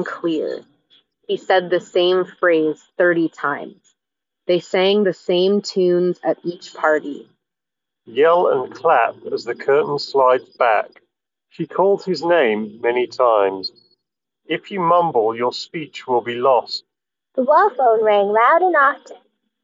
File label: Street microphone sample; Anker Soundcore Liberty 4 NC